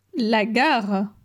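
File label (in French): "La gare", French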